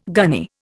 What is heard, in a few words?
"gunny", Indian